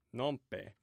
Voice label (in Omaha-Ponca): "nonpe", Omaha language